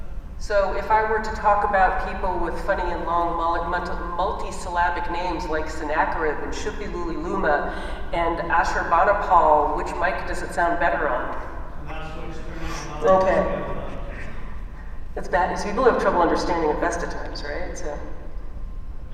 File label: lapel mic after